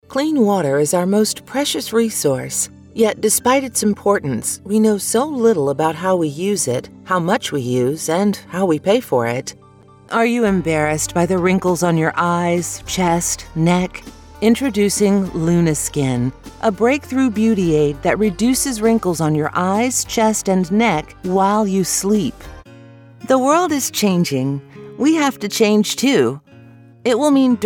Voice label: english american narration